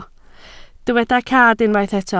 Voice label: "cad", Welsh